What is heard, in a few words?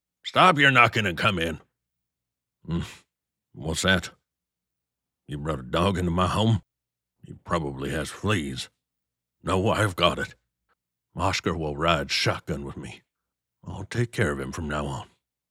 Grumpy Old Man